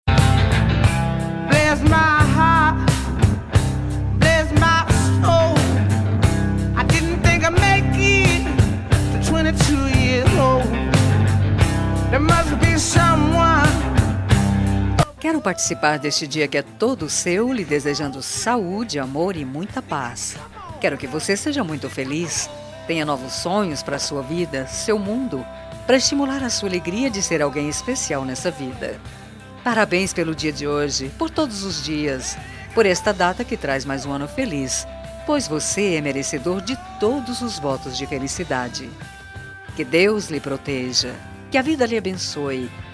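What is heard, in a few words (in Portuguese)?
birthday NEUTRO SING female, telemensagem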